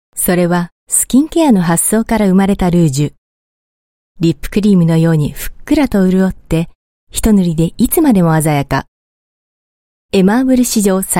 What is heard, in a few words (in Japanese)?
Japanese Female